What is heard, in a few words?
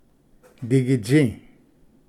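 "digitsi", Cherokee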